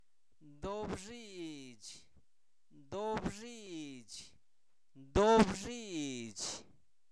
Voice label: Zapoteco Albarradas, santo domingo albarradas; comestibles; piña; INALI

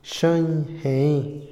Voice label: dificultar, Otomí, hombre; Sociales; Vocabulary; INALI

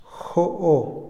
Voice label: vacío, Otomí, hombre; INALI

